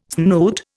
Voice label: "snoot", Indian